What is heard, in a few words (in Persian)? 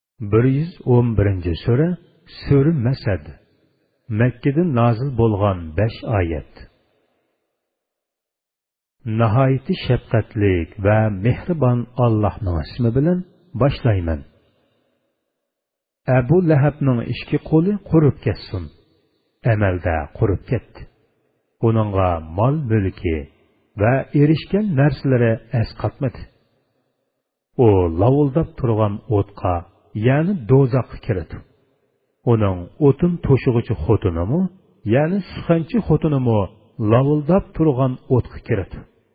ترجمه گویای قرآن کریم به زبان ئویغؤر; سوره مسد